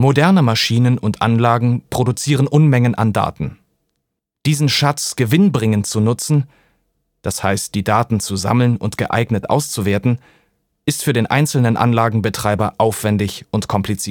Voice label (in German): Werbung